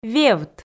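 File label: "вевт", Komi, female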